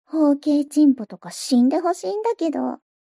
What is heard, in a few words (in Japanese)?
mob 女子 台詞